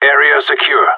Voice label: clear, radio; GSG9

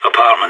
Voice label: apartment, radio; SAS

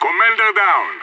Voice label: commander down, radio; IDF

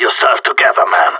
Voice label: friendly fire, radio; GSG9

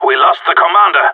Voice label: commander down, radio; GSG9

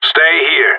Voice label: hold here, radio; GSG9

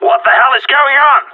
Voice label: friendly killed, radio; GSG9

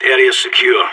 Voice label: clear, radio; SAS

